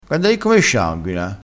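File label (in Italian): "sei", vocabolario dialettale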